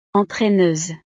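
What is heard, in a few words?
"entraineuse", French; profesiones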